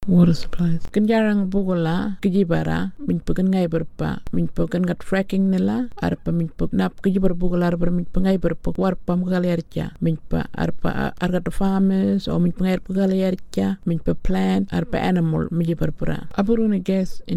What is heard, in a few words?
Burarra; WATER SUPPLY